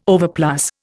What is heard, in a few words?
"overplus", Indian